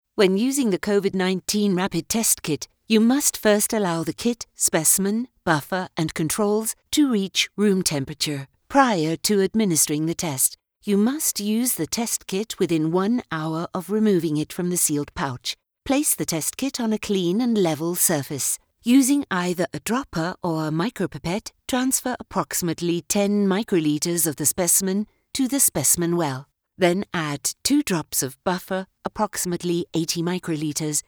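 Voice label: English Medical Narration; Covid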